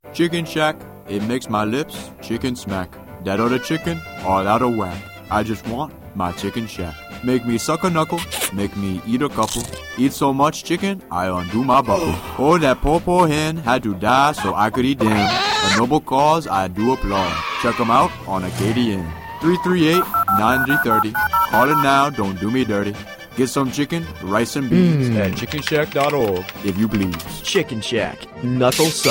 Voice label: Commercial; Chicken Shack Spec Ad; 1st Place Best Commercial; 2022-23 John Drury High School Radio Awards; Award-Winning Student Productions